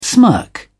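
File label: "smirk", British English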